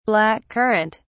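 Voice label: "black currant", British English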